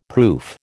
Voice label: "proof", American